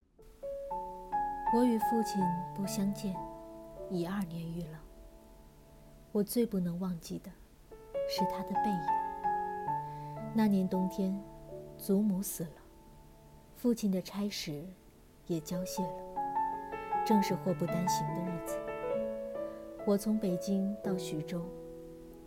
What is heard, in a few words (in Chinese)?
旁白; 背影